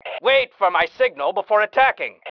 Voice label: wait attack, MALE

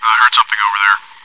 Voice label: chitchat; svencoop

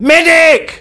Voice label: Medic, male; svencoop

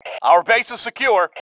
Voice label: base secure, MALE

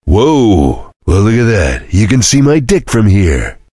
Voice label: chitchat; svencoop; voice commands; Duke

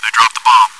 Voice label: chitchat, bot, voice commands; svencoop

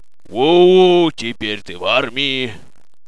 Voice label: monologue, soldier; svencoop; paranoia

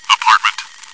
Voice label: chitchat, bot, voice commands; svencoop

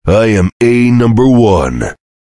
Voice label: cheer; svencoop; voice commands; Duke

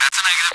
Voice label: radio no

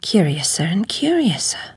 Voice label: chitchat; svencoop; Alice

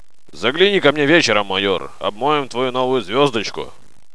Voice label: monologue; svencoop; paranoia; officer

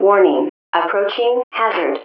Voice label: intercom; ProjectMobius

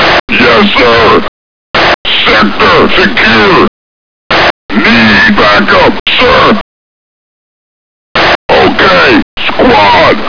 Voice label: vox hgrunt; cstrike; voxes

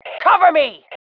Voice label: COVERME, FEM

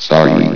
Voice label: "sorry", vox; cstrike

